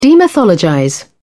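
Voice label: "demythologize", British English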